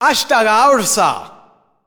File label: "Asta La Hoursta" (Echo), announcer; gameplay failed